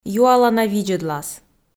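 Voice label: "юалана видзӧдлас", Komi, female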